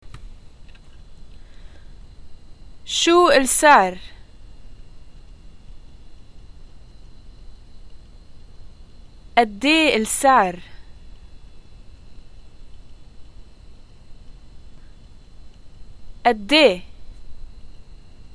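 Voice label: money what is the price, Lebanese arabic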